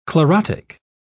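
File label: "chlorotic", British English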